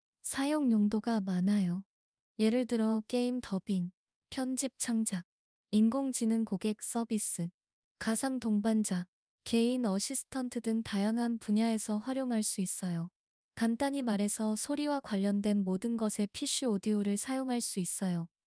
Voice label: Korean